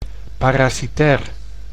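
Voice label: "parasitair", Dutch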